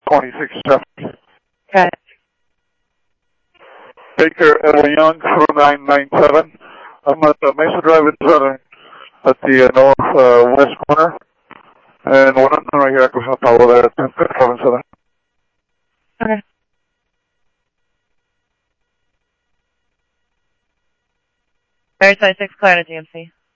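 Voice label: Simulcast police department, scanner audio trunk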